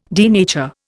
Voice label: "denature", Indian